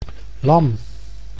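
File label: "lam", Dutch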